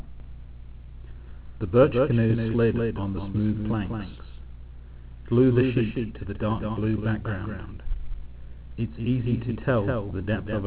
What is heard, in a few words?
echo